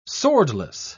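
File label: "swordless", British English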